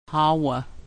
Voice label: Again, language class dictionary